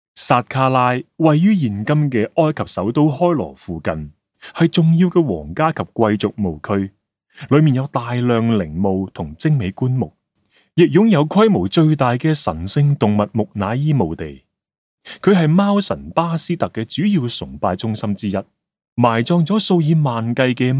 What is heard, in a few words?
CAN, audio guide; 貓木乃伊; Egypt; 古埃及文明大展